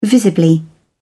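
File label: "visibly", English, British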